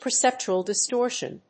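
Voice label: "Perceptual Distortion", English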